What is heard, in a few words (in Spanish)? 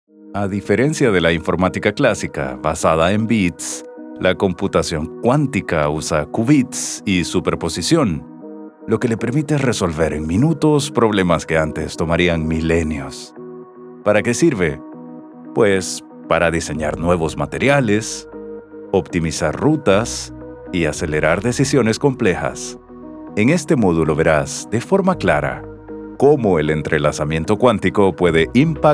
E-learning STEM